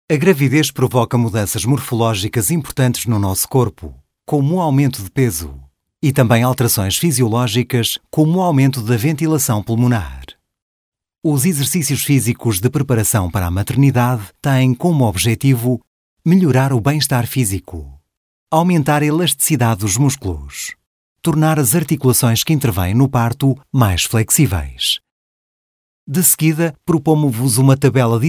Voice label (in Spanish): Masculino; Sonoarte